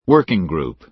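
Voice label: "working group", American English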